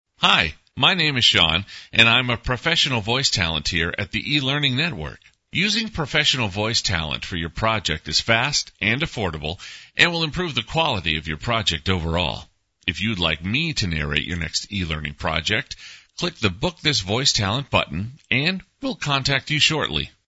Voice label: voice talent, e-learning